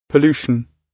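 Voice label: "pollution", British English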